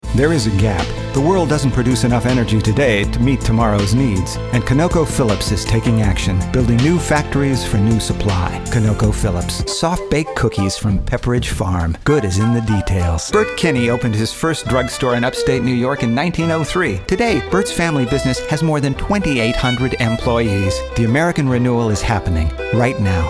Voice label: Commercial